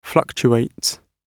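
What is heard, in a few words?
"fluctuates", British English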